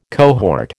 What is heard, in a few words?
"cohort", American